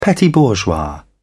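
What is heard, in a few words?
"petit-bourgeois", English, British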